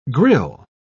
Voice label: "grille", pronounce

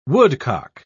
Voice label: "woodcock", pronounce

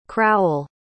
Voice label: "crowl", pronounce

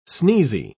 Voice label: "sneezy", pronounce

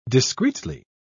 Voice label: "discreetly", pronounce

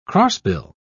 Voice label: "crossbill", pronounce

